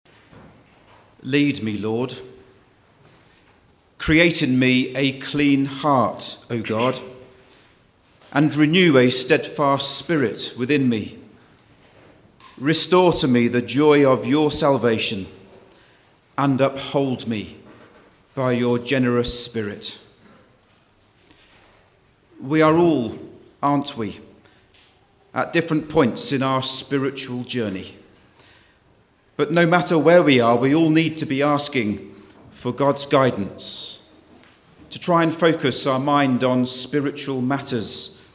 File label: Choir Opening Narration